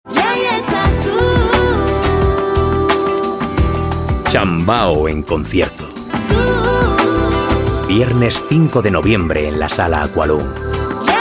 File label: spanish announcements